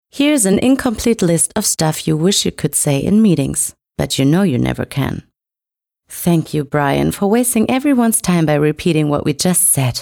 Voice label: Englisch; Comedy, Comment (Kommentar); English NEUTRA; The unspeakable; German

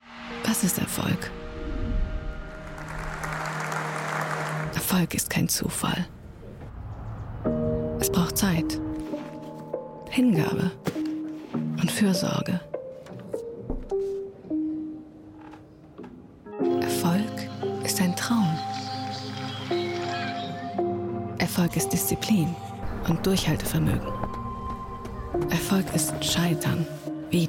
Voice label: DE Werbung; UBS Bank

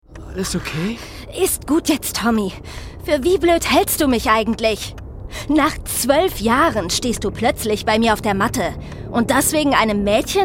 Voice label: Hoerspiel; Podimo; Verruckte Zeit

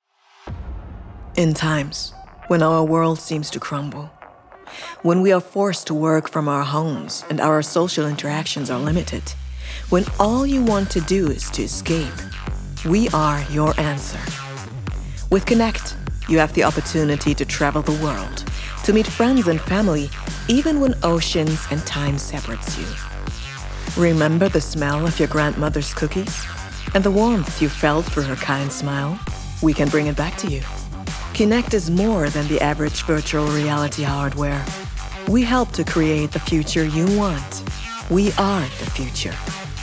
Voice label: Imagefilm Futuristic englisch

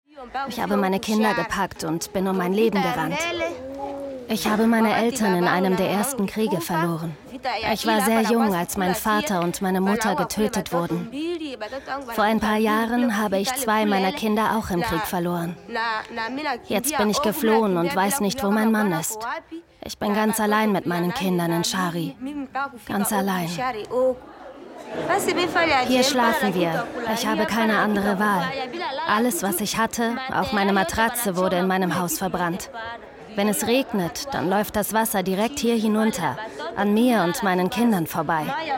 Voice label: Voiceover

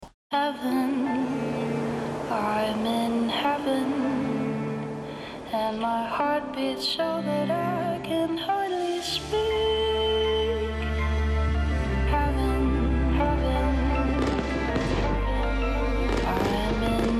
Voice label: TV Spot; DS4

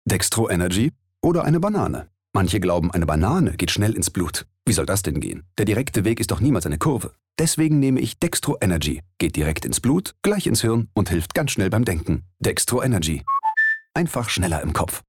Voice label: werbung; dextro energy

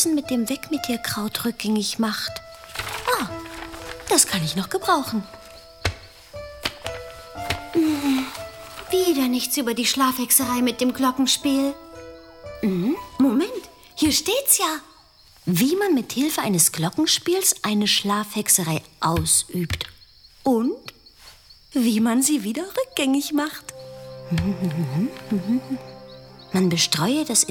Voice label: trickfilm junge hexe; bibi blocksberg

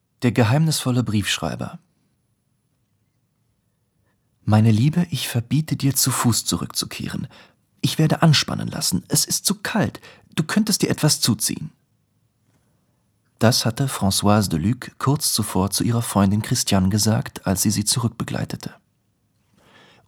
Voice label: Hoerbuch; Proust; Briefschreiber

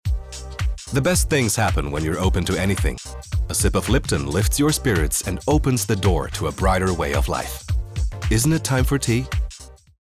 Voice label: englisch werbung; LOFT VOICES

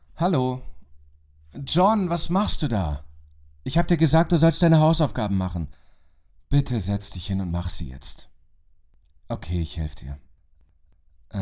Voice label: Synchrobeispiel Vater

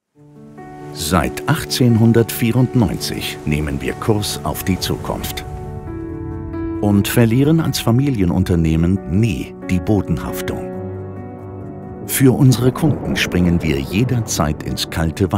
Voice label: imagefilm chemie nach mass

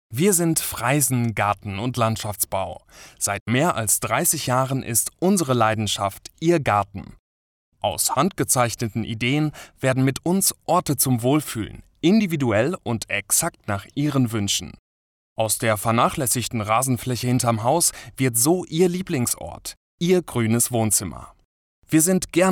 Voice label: Imagefilm

